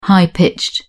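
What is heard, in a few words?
"high-pitched", English, British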